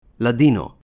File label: "Ladino", Hebrew